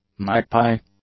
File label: "magpie", English; nouns